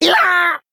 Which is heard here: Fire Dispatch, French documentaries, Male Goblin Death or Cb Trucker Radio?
Male Goblin Death